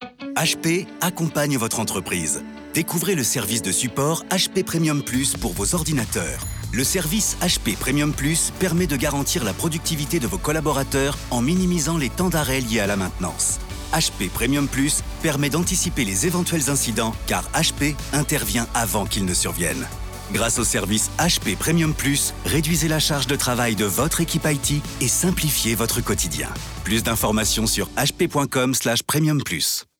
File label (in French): SPOT DIGITAL; Le maillon faible; M6 Publicité